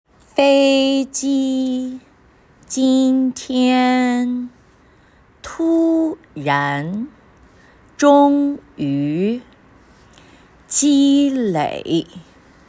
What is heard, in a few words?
tone pairs t1 - t2